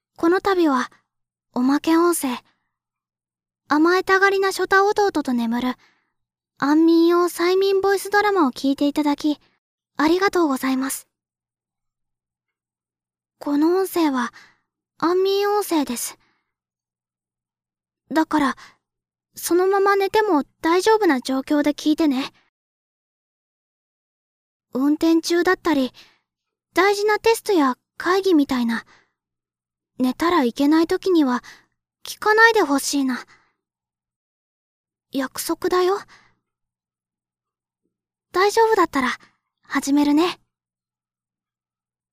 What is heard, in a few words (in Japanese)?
安眠用催眠ボイスドラマ; おまけ、甘えたがりなショタ弟と眠る安眠用催眠ボイスドラマ